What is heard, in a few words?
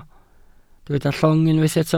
"llong", welsh